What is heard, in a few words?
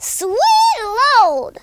grenade, Blues Man speech